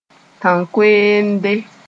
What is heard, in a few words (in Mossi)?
"tãn-koeemde", moore